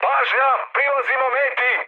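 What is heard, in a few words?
Serbian near waypoint mood high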